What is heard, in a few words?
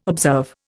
"observe", Indian